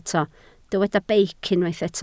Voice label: "beic", Welsh

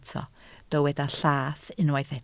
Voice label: "llath", Welsh

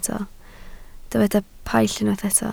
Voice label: "paill", Welsh

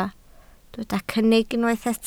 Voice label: "cynnig", Welsh

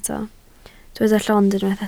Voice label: "llond", Welsh

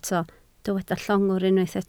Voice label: "llongwr", Welsh

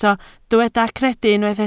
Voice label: "credu", Welsh

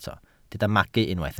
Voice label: "magu", Welsh

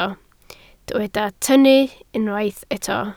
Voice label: "tynnu", Welsh

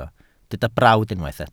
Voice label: "brawd", Welsh

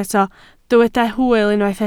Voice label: "hwyl", Welsh

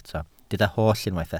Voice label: "holl", Welsh

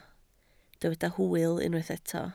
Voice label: "hwyl", Welsh

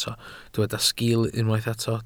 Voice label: "sgiil", Welsh

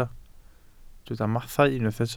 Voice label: "mathau", Welsh

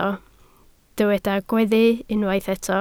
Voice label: "gweddu", Welsh

